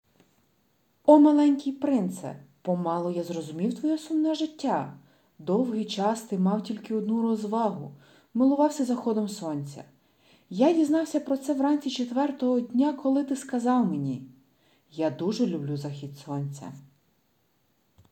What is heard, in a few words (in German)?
Ukrainisch Vinnytsia